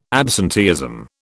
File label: absenteeism, British